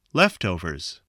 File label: "leftovers", English